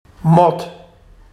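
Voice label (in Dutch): "mot", Barghs dialect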